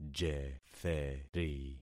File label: "jetheri", concat syllables; Affixing experiment